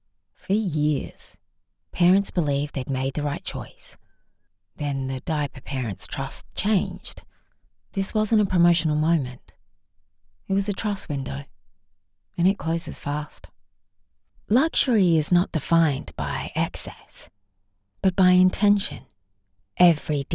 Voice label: Naturalistic